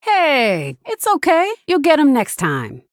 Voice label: Female Human Combat Loss

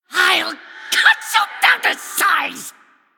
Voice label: Male Gnome

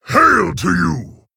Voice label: Male Orc Greetings

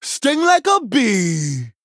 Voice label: Male Troll Play

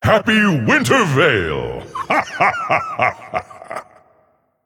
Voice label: Male Titan Forged Emote HAPPY HOLIDAYS

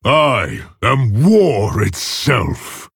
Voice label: Male Orc; Varok; Uther; Hero Power

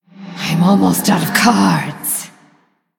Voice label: Female Blood Elf Low Cards; Valeera Deathknight

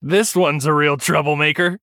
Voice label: Male Human Recruit Large Minion

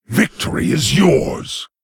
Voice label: Male Lich Concede

